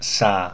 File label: "sa", pinyin